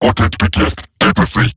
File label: Player Spotted, Robot Large